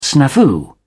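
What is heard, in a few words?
"snafu", British English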